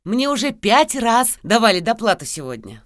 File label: Peasant Female